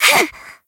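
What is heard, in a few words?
damaged, Japanese; morgana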